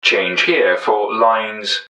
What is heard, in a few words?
change, english man, subway announcers; MetrostroiAddon